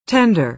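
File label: "tender", American English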